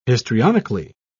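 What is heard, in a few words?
"histrionically", British English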